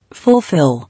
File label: "Fulfil", English